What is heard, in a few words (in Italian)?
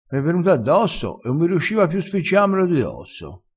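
"spiccia", dialettale, crespinese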